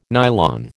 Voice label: "nylon", American